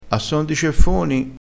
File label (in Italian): "a son di", Crespinese